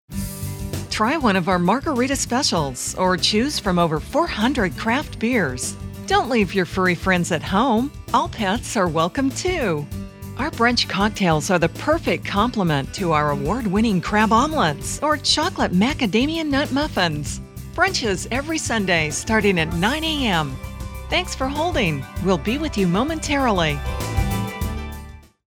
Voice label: Upbeat On Hold